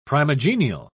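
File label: "primigenial", British English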